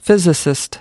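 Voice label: "physicist", US English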